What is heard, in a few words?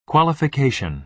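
"qualification", American English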